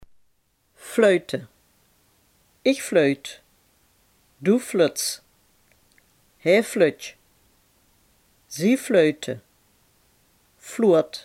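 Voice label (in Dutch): "fluiten" Limburgish dialect word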